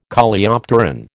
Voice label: "Coleopteron", American

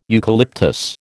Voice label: "eucalyptus", American

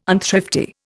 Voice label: "unthrifty", Indian

